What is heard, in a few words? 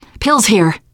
spot pills, teengirl, voice; player; survivor